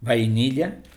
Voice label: "vainilla", es